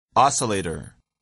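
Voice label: "oscillator", English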